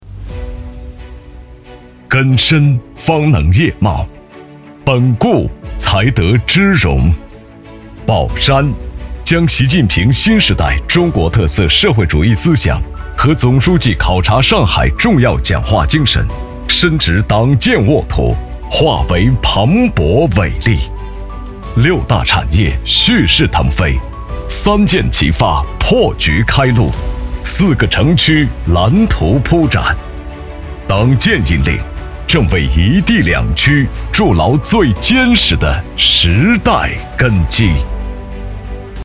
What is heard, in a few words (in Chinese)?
男, 专题; 宝山区党建